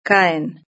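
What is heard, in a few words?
"caen" Spanish; verb-conjugator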